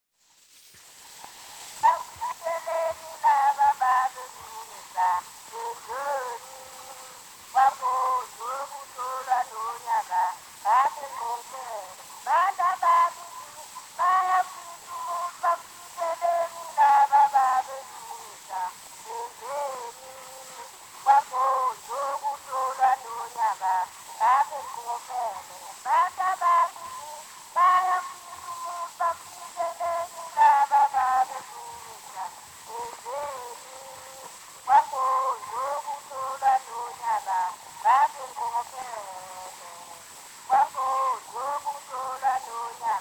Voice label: Zulu Recordings; Father Franz Mayr